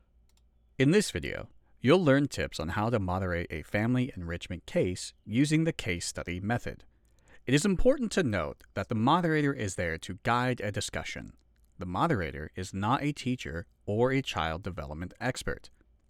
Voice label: e-learning samples